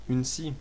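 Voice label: saw desc, French; tuxpaint-stamps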